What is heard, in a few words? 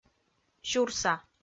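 "сюрса", Komi, female; kpv audio dict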